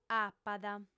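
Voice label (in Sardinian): "apat", female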